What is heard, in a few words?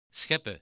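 "scheppen", Dutch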